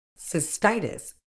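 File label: "cystitis", British English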